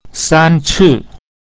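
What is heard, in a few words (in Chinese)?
"san ci", Mandarin Chinese pinyin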